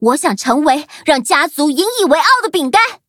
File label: levelup zh-hant; cookie run